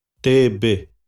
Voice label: "tebe", xavante